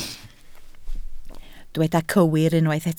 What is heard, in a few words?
"cywir", Welsh